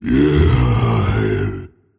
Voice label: anger; dota; tiny